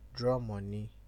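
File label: "draw money", Nigerian Pidgin, male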